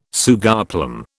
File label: "sugarplum", British